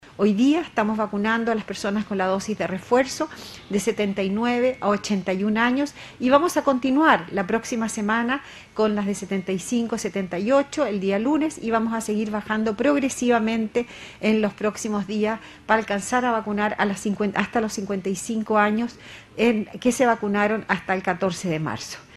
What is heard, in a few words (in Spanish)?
cuña